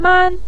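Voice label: "man", Mandarin Chinese neutral tone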